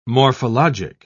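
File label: "morphologic", British English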